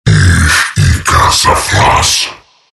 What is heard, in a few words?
morph demon; terrorblade